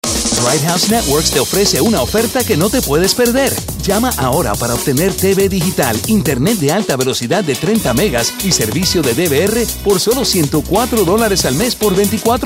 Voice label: upbeat guys next door